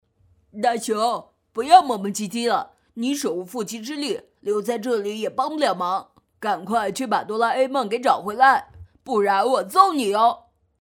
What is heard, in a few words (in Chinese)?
女 模仿; 胖虎